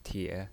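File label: "tie", pinyin voice, third tone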